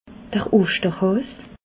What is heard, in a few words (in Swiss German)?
"osterhaas", alsace